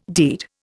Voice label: "deed", Indian